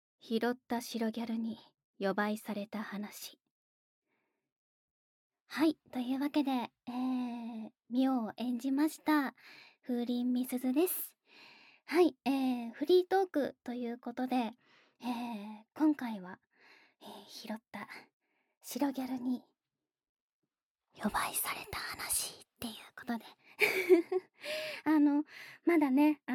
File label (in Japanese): フリートーク